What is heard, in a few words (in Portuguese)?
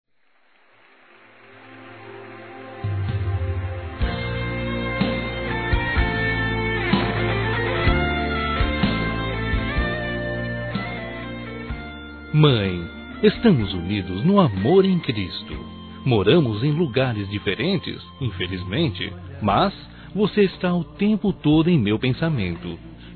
Distante Masculino; Dia das Mães; Telemensagens 2017